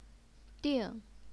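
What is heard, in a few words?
"ding", fourth tone, Chinese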